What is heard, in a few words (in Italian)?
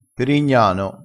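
"perignano", dialettale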